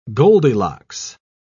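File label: "goldilocks", British English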